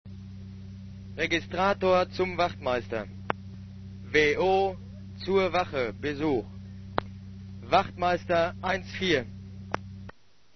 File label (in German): Durchsagen